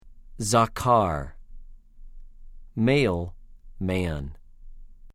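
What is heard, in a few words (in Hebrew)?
"זָכָר", Hebrew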